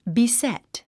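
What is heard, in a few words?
"beset", English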